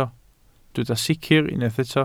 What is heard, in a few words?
"sicr", Welsh